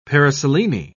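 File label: "paraselene", British English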